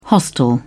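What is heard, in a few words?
"hostel", British English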